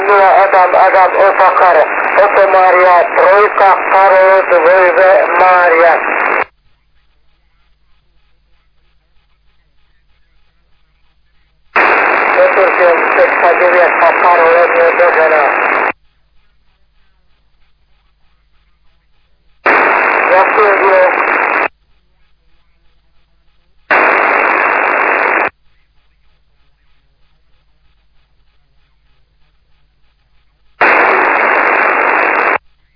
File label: SSB LSB 35MHz; SSB-LIGA